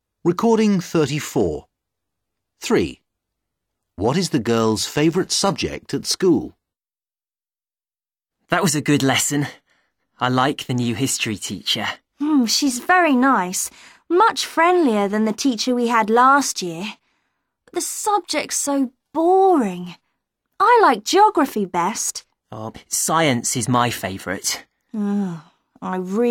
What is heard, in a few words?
dialog